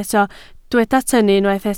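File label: "tynnu" Welsh, spoken word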